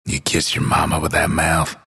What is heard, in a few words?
announcer text cursing; dlc bastion